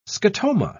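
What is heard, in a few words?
"scotoma", British English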